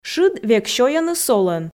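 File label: шыд век сёйӧны солӧн, Komi, female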